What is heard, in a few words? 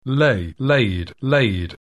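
"lay", English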